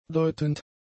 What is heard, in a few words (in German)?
"deutend", german; adjectives